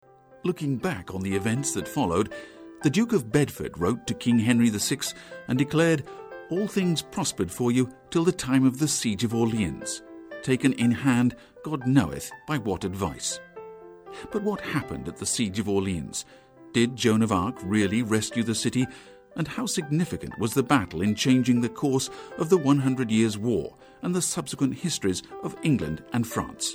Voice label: read